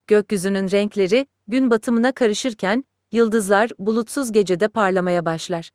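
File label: Turkish; iOS 26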